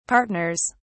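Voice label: "partners", British English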